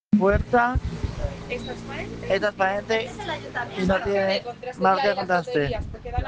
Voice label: Voice message